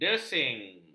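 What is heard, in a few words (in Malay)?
"desing", Malay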